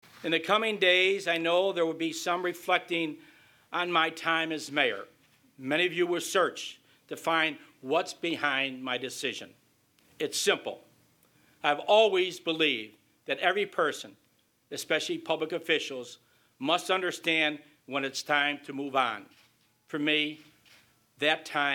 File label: Announcement